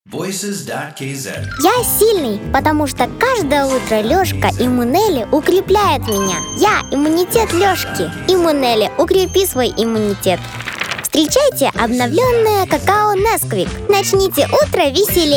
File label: kid ru